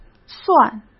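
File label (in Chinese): "算", Mandarin Chinese; chinese-flashcards; hsk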